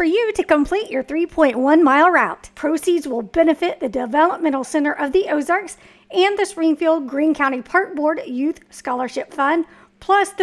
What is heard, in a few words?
Virtual Turkey Trot Promo; SGF MO